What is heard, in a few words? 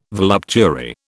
"voluptuary", British